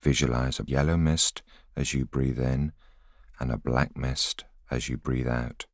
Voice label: voiceover